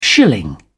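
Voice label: "shilling", British English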